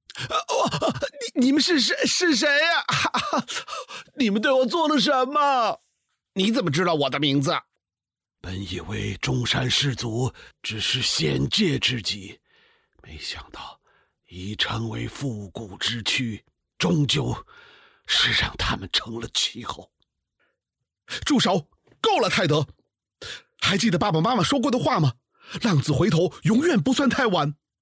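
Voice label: Mandarin Chinese, China, male, voice over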